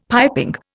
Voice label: "piping", Indian; Dictionary